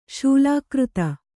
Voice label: "ಶೂಲಾಕೃತ", Kannada

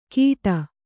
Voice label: "ಗಿಡ", kannada

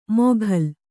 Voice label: "ಮೊಘಲ್", Kannada

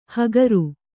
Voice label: "ಹಕಾರ", kannada

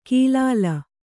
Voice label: "ಕೀಲಾಲ", Kannada

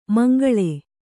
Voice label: "ಮುಂಗಾಳು", kannada

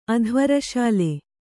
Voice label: "ಅಧ್ವರಶಾಲೆ", Kannada; adhvaraśale; noun

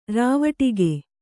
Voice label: "ರಾವಟಿಗೆ", Kannada; rāvaṭige; noun; a small tent.

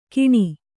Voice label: "ಕೀಣಿ", Kannada

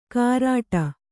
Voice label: "ಕಾರಾಟ", kannada

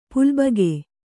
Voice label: "ಪುಲ್ಬಗೆ", Kannada

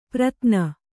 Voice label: "ಪ್ರತ್ನ", Kannada

